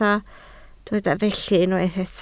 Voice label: "felly", Welsh